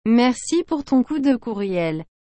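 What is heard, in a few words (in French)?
"Merci pour ton coup de courriel.", French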